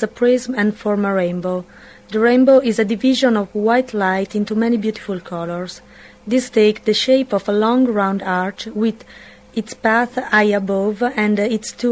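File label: italy, International Dialects of English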